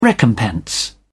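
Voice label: "recompense", British English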